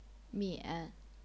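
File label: "mian", third tone, chinese pinyin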